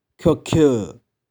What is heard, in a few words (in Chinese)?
"khioh4khieu2", 玉環